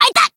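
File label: Voice damaged Japanese; morgana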